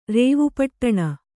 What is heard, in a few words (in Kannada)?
"ರೇವುಪಟ್ಟಣ", Kannada